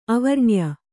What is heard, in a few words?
"ಅವರ್ಣ್ಯ", Kannada